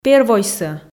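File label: "первойсӧ", Komi, female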